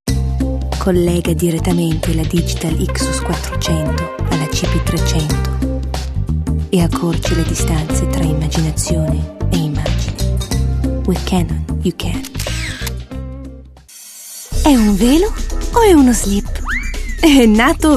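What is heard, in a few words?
Italian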